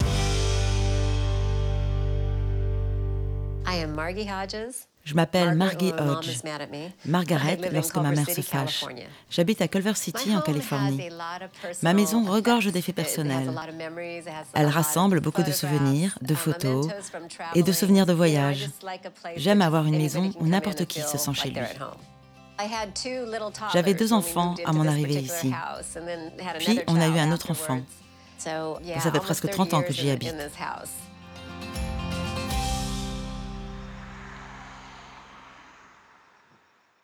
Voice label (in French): Doublage, voix off; l'art du rangement Mari CONDO